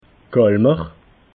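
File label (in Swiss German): "colmer", Alsatian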